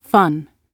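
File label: "fun" British English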